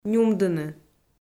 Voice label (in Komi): "нюмдыны", Komi